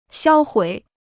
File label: "销毁", Chinese